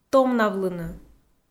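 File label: "томнавлыны", Komi, female; audio dict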